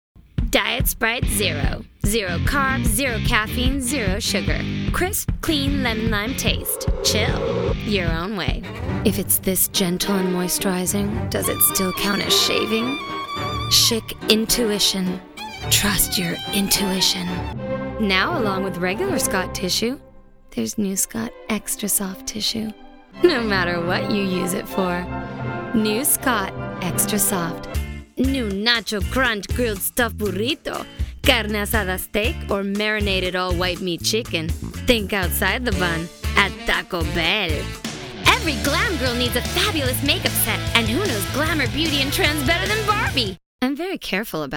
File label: F Commercial, voiceover womens